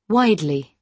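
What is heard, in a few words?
"widely", British English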